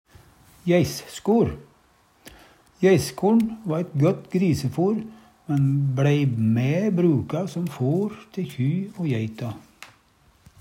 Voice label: jeisskor, Numedalsmål

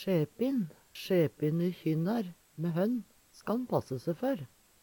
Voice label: sjepin, Numedalsmål; Sjepine kjynnar mæ hønn, ska`n passe se før.; Adjektiv; Veggli

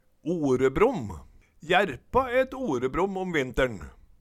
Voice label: orebrom, Numedalsmål; Jerpa et orebrom om vintern

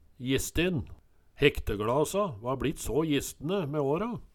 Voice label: jistin, Numedalsmål

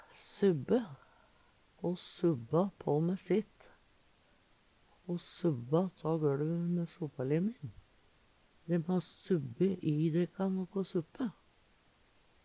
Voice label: "subbe", Numedalsmål

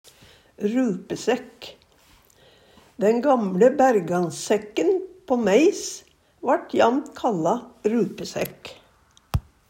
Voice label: rupesekk, Numedalsmål; Jakt, fangst, fiske; Den gamLe Bergansekken på meis vart jamt kalla rupesekk.

